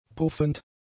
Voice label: "puffend", German; adjectives

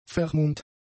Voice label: "vermummt", German; adjectives

